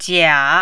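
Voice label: "jia", third tone, Mandarin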